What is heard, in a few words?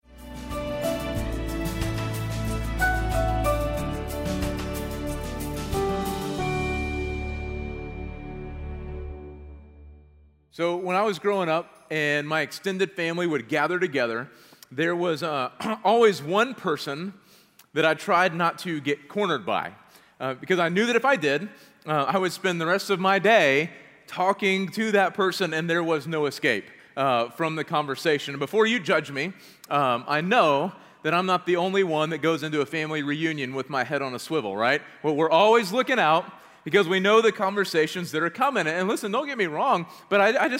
Sermon English; Trusting God’s Plan & Time; Good News - A Savior is Born